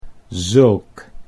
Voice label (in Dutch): "zulk", Dutch